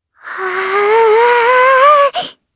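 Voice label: Vox Casting Japanese; Lavril; kings raid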